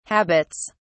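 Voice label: "habits", British English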